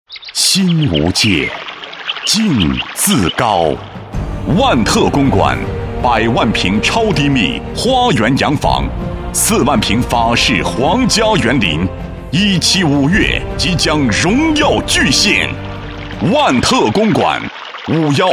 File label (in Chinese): 男 广告 房产广告; 公馆; 公馆房产广告